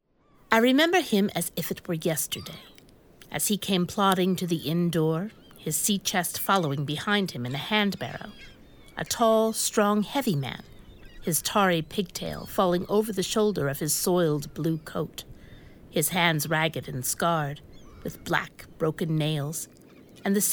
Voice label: VO Adult Fiction